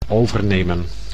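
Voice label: "overnemen", Dutch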